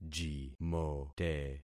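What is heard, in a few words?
"jimote", concatenated syllables; Affixing experiment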